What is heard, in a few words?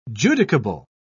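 "judicable", British English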